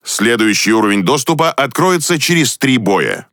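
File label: Russian Speech Access Level Unlock; World Of Warships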